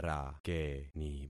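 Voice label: "rakeni", concatenated syllables; Affixing experiment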